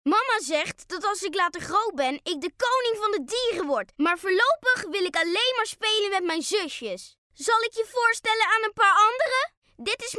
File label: Dutch boy; dictor; dictor-sounds